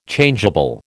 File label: "Changeable", American